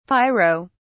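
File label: "pyro", British English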